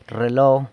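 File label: "rrelo", watch, San Lucas Quiaviní Zapotec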